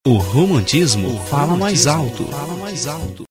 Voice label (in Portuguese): Vinheta; romantismo fala